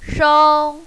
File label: "shao1", mandarin, female